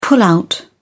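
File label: "pullout", British English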